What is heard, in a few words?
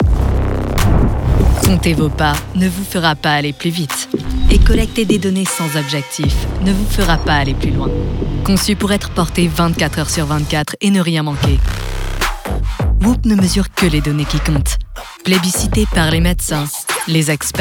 female french commercial